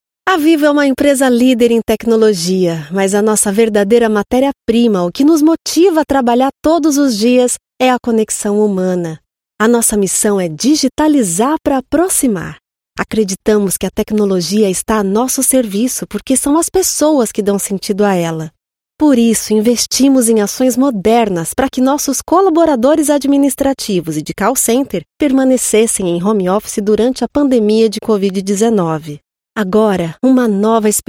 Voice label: portuguese brazilian corporate videos